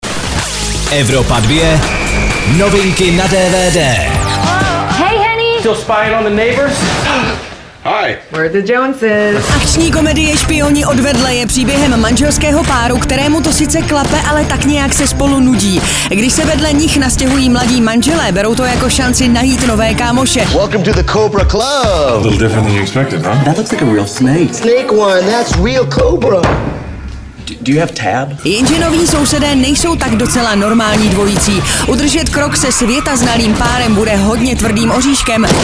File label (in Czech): dvd news; evropa2; spioni od vedle